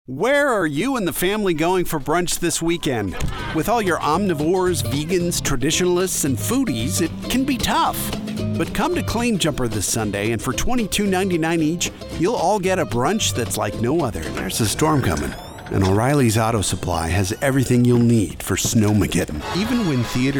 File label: Commercial; voice demo production samples